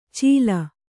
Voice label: "ಚೀಲ", kannada

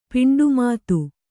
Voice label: "ಪಿಂಡುಮಾತು", Kannada

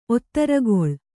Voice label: "ಒತ್ತರಗೊಳ್", Kannada

